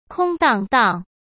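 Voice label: "空荡荡", Mandarin Chinese; chinese-flashcards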